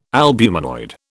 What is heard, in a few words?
"albuminoid", British